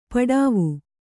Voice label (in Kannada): "ಪಡಾವು", Kannada